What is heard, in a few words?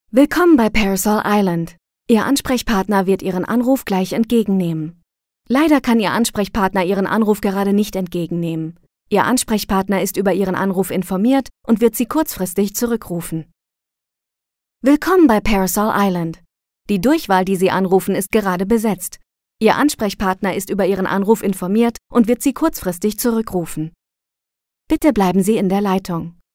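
German IVR; Parasol Island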